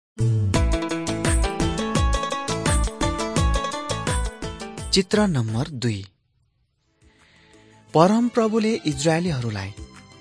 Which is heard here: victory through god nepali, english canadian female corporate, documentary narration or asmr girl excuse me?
victory through god nepali